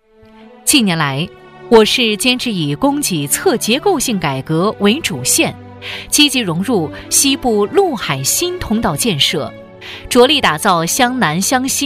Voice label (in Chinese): 女, 政府专题片, 正式稳重; 质感知性; 沉稳大气; 筑梦匠心谱华章